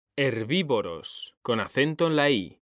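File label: "herbívoros", Spanish, male; mekanta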